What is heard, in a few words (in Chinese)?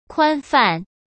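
"宽泛", Mandarin; chinese-flashcards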